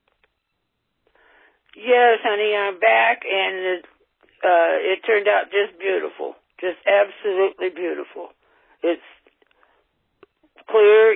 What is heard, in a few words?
Voicemail